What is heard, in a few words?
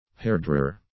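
"hairdryer", English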